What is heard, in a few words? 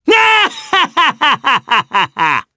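Vox Happy Japanese; kingsraid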